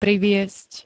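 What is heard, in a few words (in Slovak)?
"priviesť", Slovak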